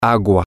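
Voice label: Spanish, water, male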